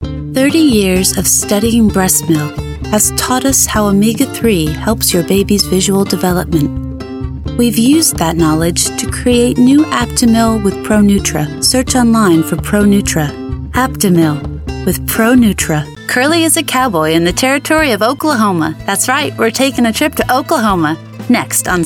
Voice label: English US

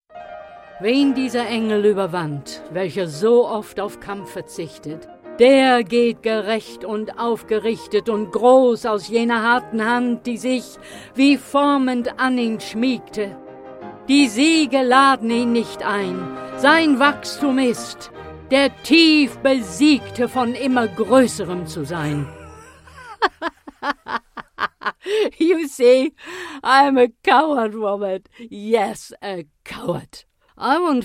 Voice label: German

